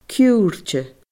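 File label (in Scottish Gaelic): "ciurrte", Scottish Gaelic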